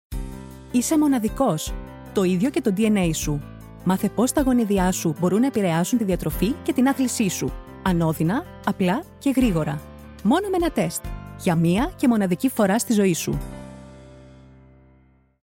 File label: female greek commercial